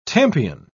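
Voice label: "tompion", British English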